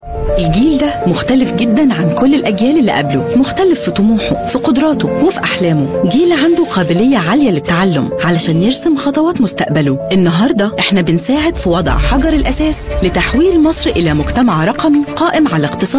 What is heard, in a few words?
arabic voice over female narrator